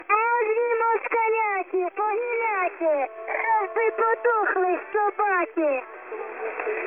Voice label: Ukrainian Chipmunk; LSB; 7055; Zahav